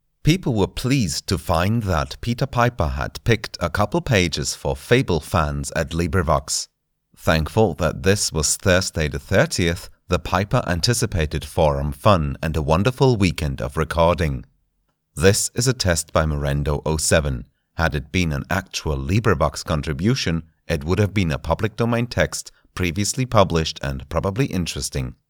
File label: booth new